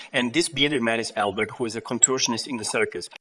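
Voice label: VoiceActing es, Male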